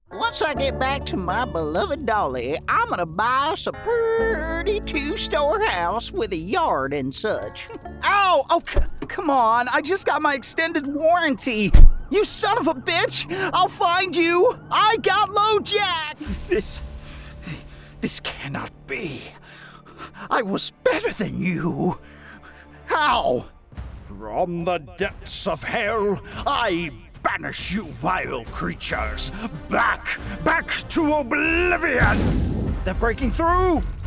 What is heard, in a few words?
english american video games